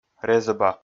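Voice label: "резӧба", Komi, male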